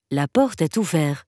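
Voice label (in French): La porte auvert, French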